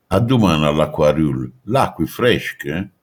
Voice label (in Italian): "acquaiolo", manfredoniano